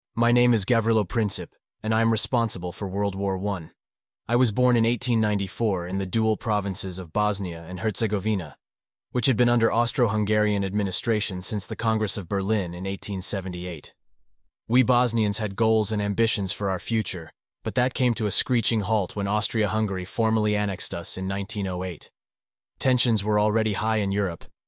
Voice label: synthesized audio